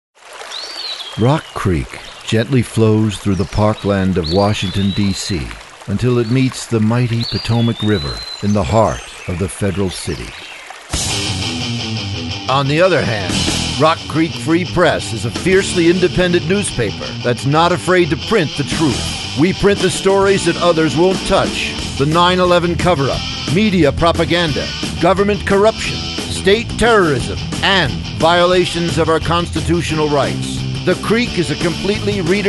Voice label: radio advertisement